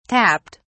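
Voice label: "tapped", British English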